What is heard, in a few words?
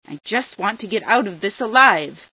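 get out alive, female scientist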